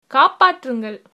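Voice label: help, tamil, female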